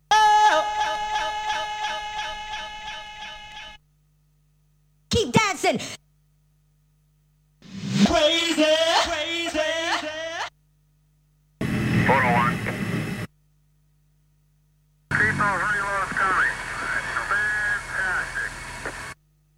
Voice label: Vocal Drops; Kniteforce; 1000 Samples Volume 1